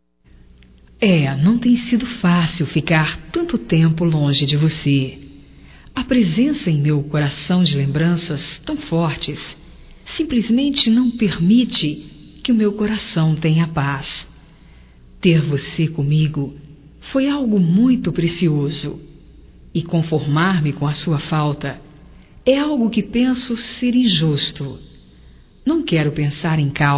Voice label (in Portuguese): SAUDADES ROMÂNTICA, Telemensagens fem; Românticas